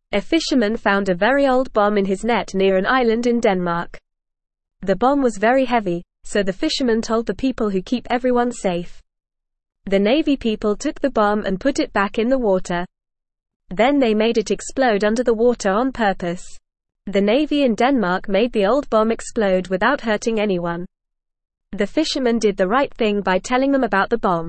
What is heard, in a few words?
English Lower Intermediate FAST Reading; English Newsroom; Old Bomb Found in Net Near Langeland Island